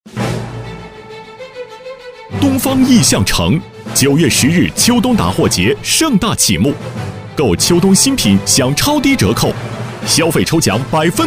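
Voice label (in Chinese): 广告大气; 东方亿象城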